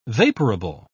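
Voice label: "vaporable", British English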